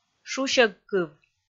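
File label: "шусьӧгкыв", Komi, female; kpv audio dict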